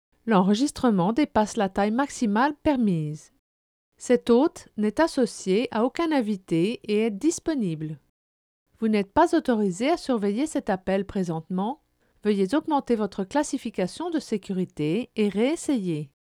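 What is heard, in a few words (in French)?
French, female, narration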